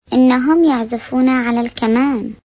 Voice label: THEY ARE PLAYING VIOLINS, Arabic